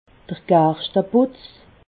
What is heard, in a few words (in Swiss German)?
"garschteputz", alsace